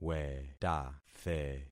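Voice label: "wetathe", concatenated syllables; Affixing experiment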